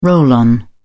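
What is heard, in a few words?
"roll-on", British English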